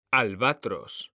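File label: "albatros", Spanish, male; mekanta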